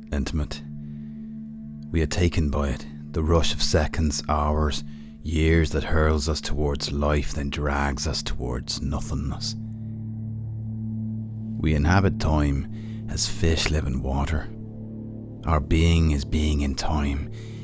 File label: Irish Commercial